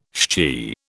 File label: "shchi", British